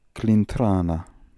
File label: "Klintrana", pronounciations; Fourth Way; Beelzebub